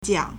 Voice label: "jiang3", Chinese

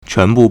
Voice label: "quan2 bu4", Chinese

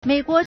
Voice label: "mei3guo2", Chinese

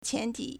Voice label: "qian2 ti2", Chinese

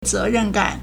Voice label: "ze2ren4gan3", Chinese

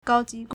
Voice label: "gao1 ji2", Chinese

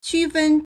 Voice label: "qu1 fen1", Chinese

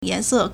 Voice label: "yan2se4", Chinese

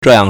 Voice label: "zhe4yang4", Chinese

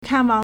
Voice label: "kan4wang4", Chinese

